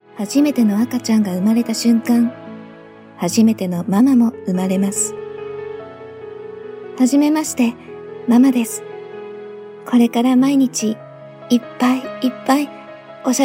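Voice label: Japanese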